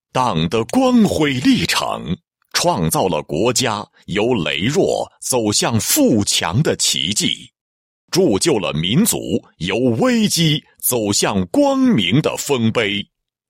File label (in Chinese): 男 专题 供水公司党建; 南部福康